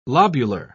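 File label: "lobular", English, British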